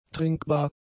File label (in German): "trinkbar", German; adjectives